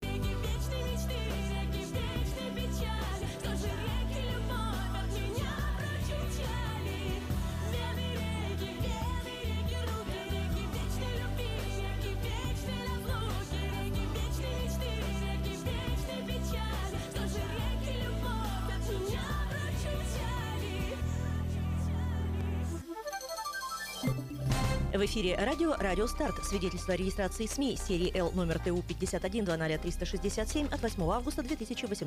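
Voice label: Russian radio weather forecast and advertising block; Russkoe Radio Murmansk 105.5 FM; Prognoz Pogody i Reklamnyj Blok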